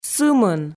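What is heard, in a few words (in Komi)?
"сымын", Komi, female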